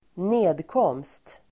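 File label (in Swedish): "nedkomst", Swedish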